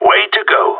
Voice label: good shooting, radio; GSG9

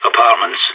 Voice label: apartments, radio; SAS

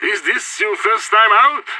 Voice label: friendly fire, radio; SAS

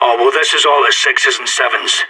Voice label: scared, radio; SAS

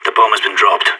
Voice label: bomb down, radio; SAS

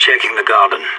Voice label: checking garden, radio; SAS

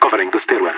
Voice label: covering stairwell, radio; LEET

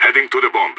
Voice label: going to guard loose bomb, radio; SAS

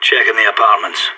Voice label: checking apartments, radio; SAS

